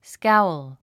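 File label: "scowl", English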